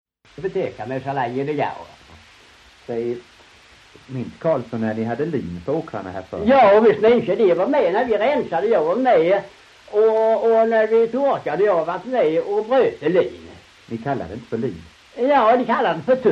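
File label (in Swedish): Ramdala Blekinge, dialekter svenska sagor; Folkminnen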